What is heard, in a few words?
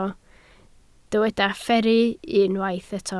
"fferru", Welsh